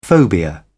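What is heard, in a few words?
"phobia", British English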